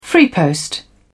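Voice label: "freepost", British English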